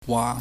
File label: "wa", Chinese